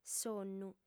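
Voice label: "sonnu", female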